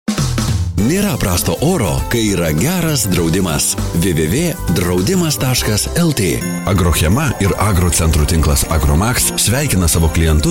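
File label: Lithuanian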